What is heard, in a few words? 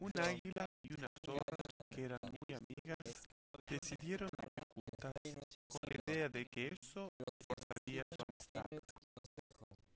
male inst sim; SASSEC07